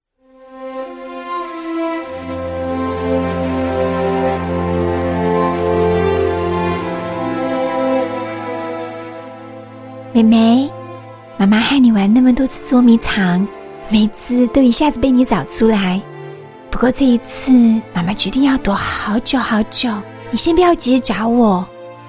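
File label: Mandarin Taiwanese, Mandarin Taiwan Female; BNP Paribas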